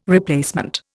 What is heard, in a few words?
"replacement", Indian